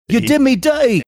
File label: saying you did me dirty british